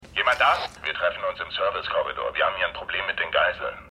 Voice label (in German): Funkstimme; BNN